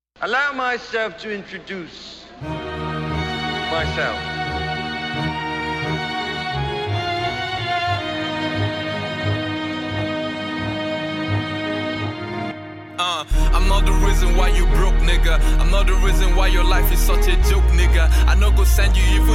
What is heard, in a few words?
Warning Diss Track